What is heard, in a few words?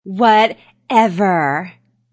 Young Lady Says Whatever; Talking Sound Effects